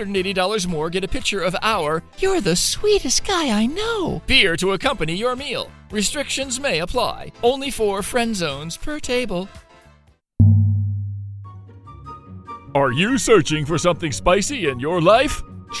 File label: FUN OVER THE TOP Piizza Ribs Burrito ADVERT